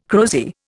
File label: "crozier", Indian